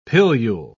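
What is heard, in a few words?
"pilule", British English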